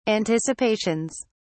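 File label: "anticipations", English, British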